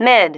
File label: "mid", female